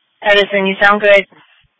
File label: Police Tactical Encrypted; sdr